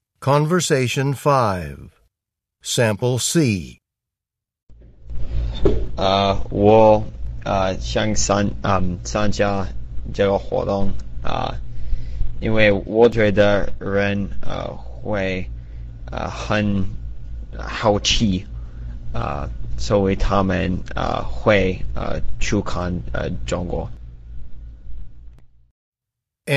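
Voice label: chinese conversation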